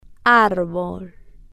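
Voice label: "arbol", slow, spanish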